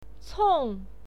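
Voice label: "cong", Mandarin Chinese, fourth tone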